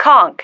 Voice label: "conc", female